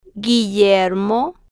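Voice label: slow, spanish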